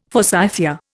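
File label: "forsythia", Indian English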